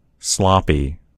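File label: "sloppy", American English; gcompris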